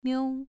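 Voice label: "miu", tone 2, pronunciation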